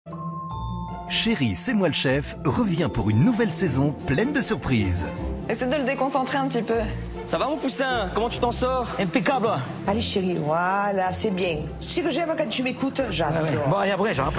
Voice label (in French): narration naturel dynamique joueur; Chéri e c est moi le Chef